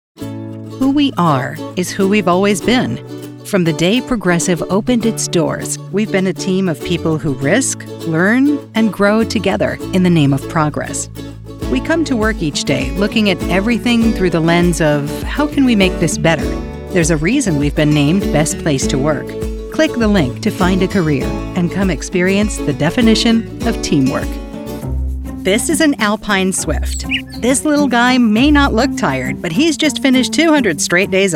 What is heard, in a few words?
Explainer